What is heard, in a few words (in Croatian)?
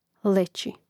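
"leci", Croatian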